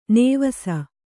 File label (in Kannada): "ನೇವಸ", Kannada